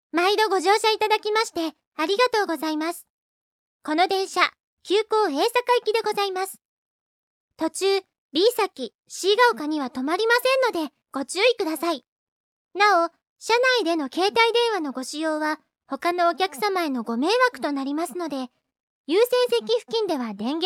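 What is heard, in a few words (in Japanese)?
ちょっと女の子らしく, 車内アナウンス的なもの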